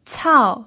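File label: "cao", pinyin, fourth tone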